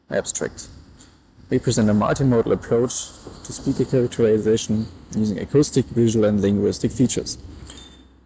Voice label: phone; lstm